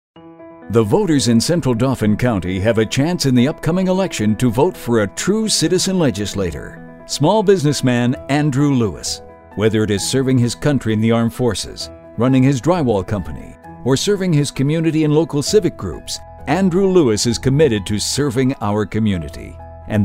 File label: Positive, political